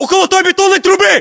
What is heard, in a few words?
landmark near concrete pipe, battlechatter ru; localized english